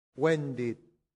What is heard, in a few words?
"vendit", latin; santillana